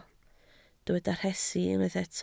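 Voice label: "rhesi" Welsh, spoken word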